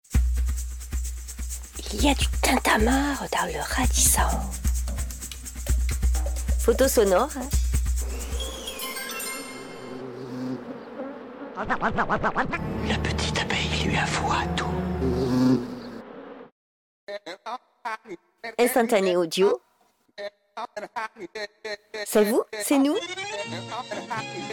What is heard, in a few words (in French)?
bande annonce; Radis Sound